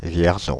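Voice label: "Vierzon", French, Paris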